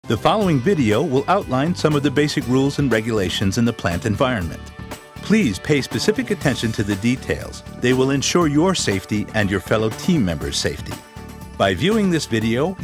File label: US narration